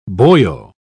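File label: "boyo", English, British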